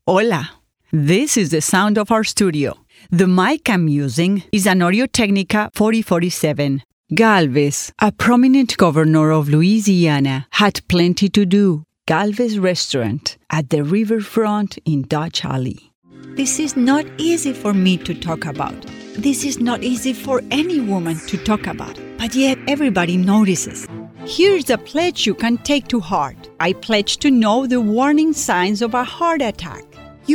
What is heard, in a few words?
English Spanish accent; mono